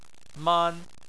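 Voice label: "man", Chinese, first tone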